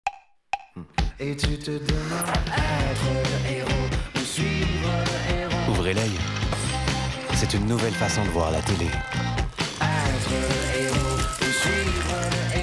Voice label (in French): Voix sobre avec sourire simple Pub narration et chant